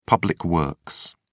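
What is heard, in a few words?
"public works", British English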